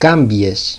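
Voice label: "kampiew", Greek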